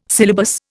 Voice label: "syllabus", Indian